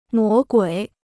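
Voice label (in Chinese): "魔鬼" Chinese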